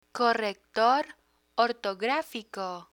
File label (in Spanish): corrector ortografico, Spanish; computer terms